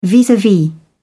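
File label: "vis-a-vis", English, British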